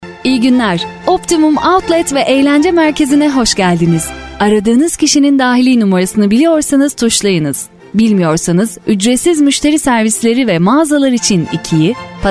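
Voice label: Turkish, woman, voiceovers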